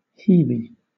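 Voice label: "heavy", British English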